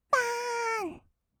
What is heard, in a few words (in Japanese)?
"ばーん", kawaii voice; 1200 kawaii voice!!; 色々なワンショット